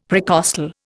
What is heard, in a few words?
"precostal", Indian; Dictionary